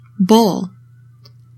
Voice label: "bowl", English; 3000 words